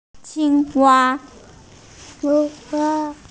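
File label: "frog", hearing impaired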